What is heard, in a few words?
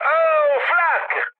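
Italian damaged aa mood high, speech; War Thunder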